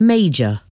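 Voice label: "major", English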